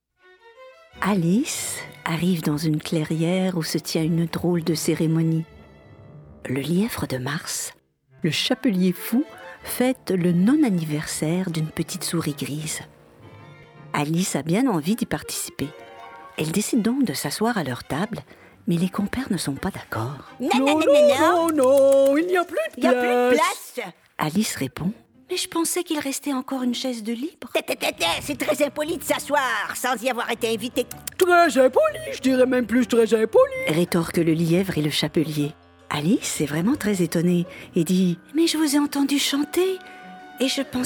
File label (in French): Narration